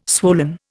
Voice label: "swollen", Indian